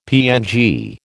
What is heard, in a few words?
"PNG", American